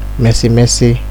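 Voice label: "messy messy", Nigerian Pidgin, male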